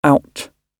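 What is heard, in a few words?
"out", British English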